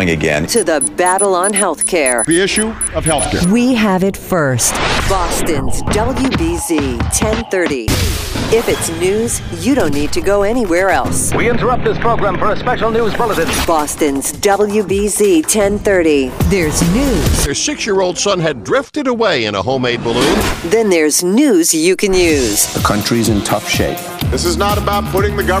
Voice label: radio newstalk, radio imaging